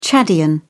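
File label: "chadian", British English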